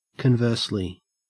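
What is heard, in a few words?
"conversely", Australian English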